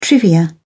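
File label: "trivia", British English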